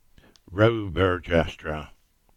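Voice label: "Buriton Row" Cornish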